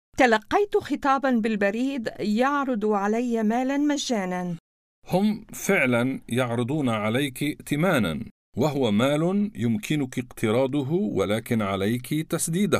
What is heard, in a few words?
credit arabic